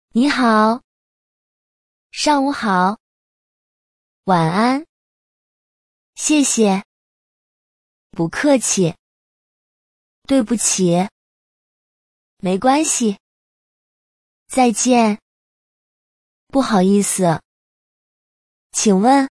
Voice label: chinese phrases